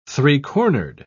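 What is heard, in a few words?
"three-cornered", British English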